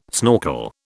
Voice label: "schnorkel", British